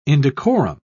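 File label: "indecorum", British English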